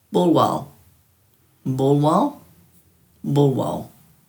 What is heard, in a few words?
"bulwal", Dhurga